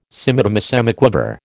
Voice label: "semidemisemiquaver", American

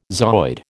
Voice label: "zooid", American

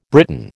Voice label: "Briton", American

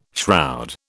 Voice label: "shroud", British

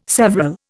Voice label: "several", Indian

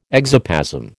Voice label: "exoplasm", American

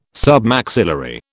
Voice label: "Submaxillary", British

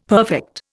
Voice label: "perfect", Indian; Dictionary

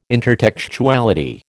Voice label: "intertextuality", American

